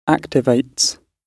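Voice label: "activates", British English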